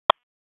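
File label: Police Southwest District Dispatch, p25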